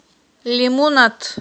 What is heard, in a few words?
"лимунат", Aghul